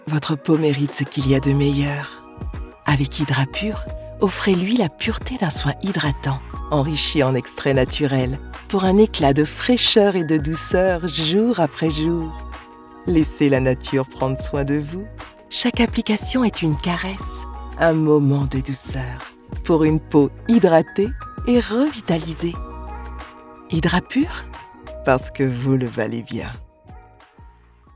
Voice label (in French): pub, voix off; hydrapure